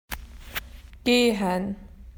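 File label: "to walk", Australian English; PictureDictionary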